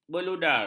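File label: "beludar", Malay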